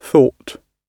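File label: "thought", British English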